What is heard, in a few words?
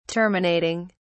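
"terminating", British English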